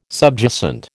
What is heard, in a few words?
"subjacent", American